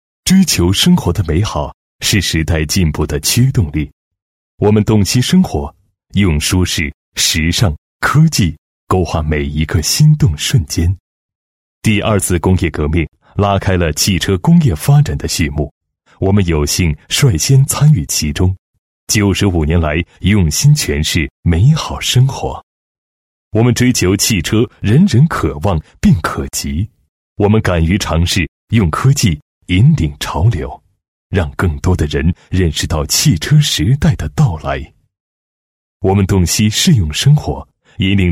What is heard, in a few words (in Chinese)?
男 时尚品质 汽车上市片头, 国语男声配音; 大气专题